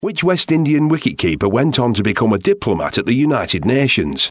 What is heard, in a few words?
northern english